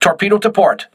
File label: voice message torpedo left, crew ships english